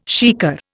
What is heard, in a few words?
"shikar", Indian